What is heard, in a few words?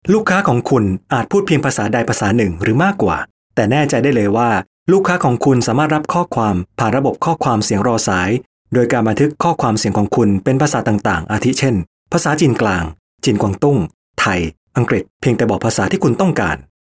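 Thai narrative; GoLocalise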